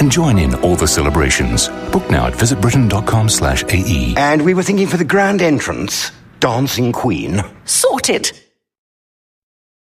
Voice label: UK English Party Commercial; Male; Olympics

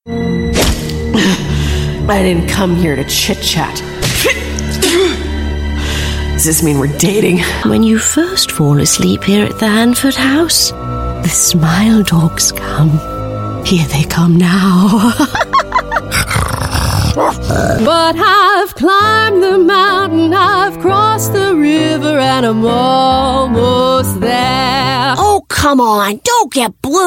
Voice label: US English Animation

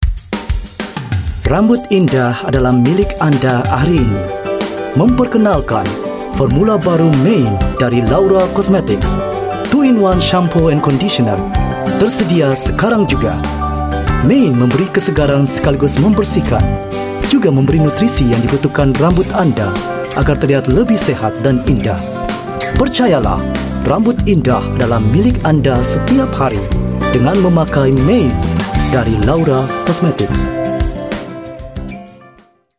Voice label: Bahasa Indonesia TVC

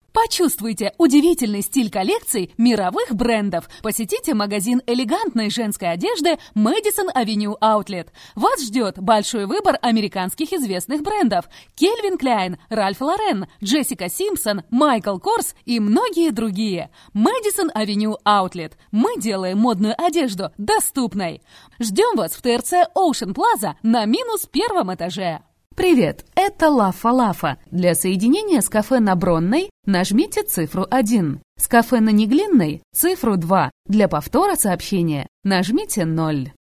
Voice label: Russian Female

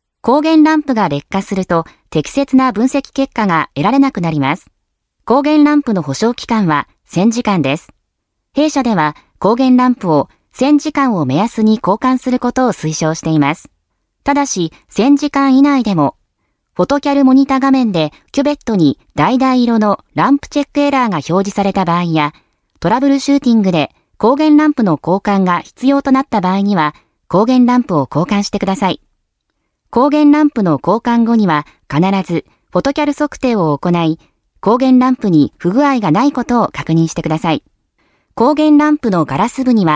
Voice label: Japanese Female eLearning

